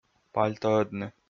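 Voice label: "пальтоӧдны", Komi, male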